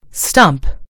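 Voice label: "stump", British English; gcompris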